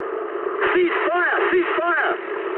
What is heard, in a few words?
eng player friendly fire mood high, speech eng